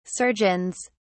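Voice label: "surgeons", British English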